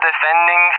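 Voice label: "defending", English, RUSSIA; Messages; DCS; Allied Flight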